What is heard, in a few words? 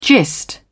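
"gist", English, British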